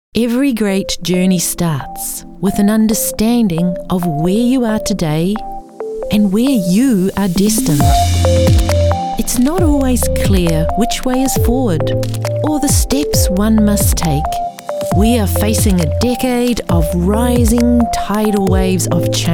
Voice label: Corporate Read, Women; NZ Voice Academy